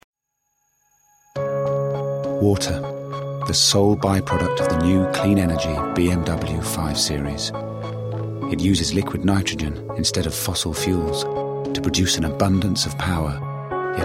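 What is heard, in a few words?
commercials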